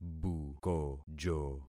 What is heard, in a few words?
"bukojo", concat syllables; Affixing experiment